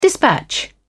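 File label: "dispatch", English, British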